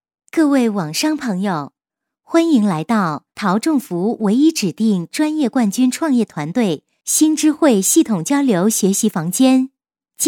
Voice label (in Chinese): 女 广告 接待文字, 国语女声配音; 省台女配